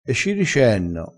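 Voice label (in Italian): "e ci ricenno", dialettale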